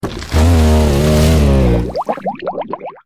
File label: Drowning Voice; Webber